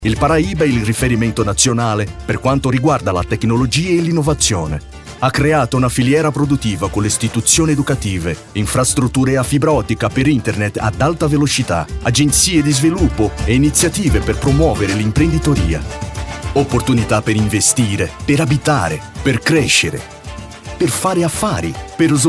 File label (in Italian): Repertorio Italiano